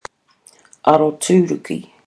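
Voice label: "aroturuki", Maori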